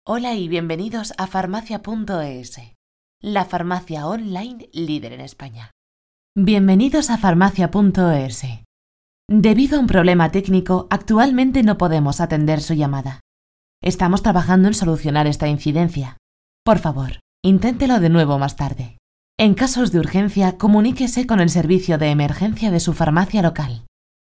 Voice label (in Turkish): Ispanyolca Kadin Ses; seslendirme